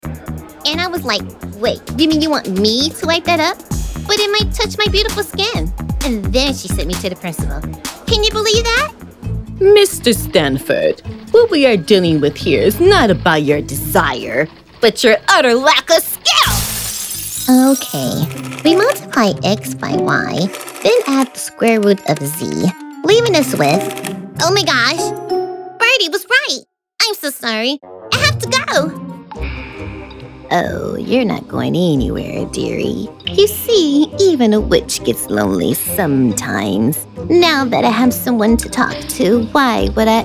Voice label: Animation Demo